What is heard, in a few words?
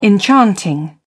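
"enchanting", British English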